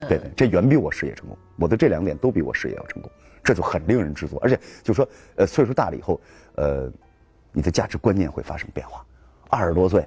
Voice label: interview